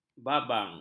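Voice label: "babang", Malay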